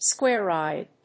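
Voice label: "square-eyed", English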